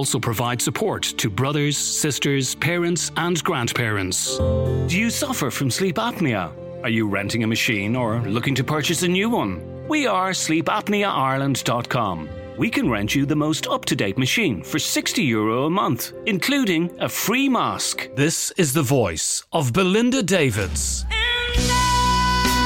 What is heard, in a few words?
English Irish, M, Explainer, accent of ireland